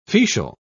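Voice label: "fetial", English, British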